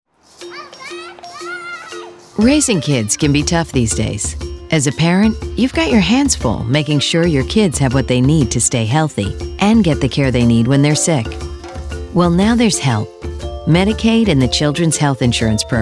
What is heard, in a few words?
general market radio psa english; call to action year round chip and medicaid enrollment